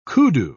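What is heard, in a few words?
"koodoo", English, British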